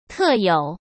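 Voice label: "特有", Mandarin Chinese; chinese-flashcards; hsk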